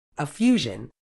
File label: "effusion", uk